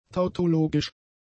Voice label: "tautologisch", German; adjectives